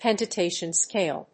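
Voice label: "pentatonic scale", English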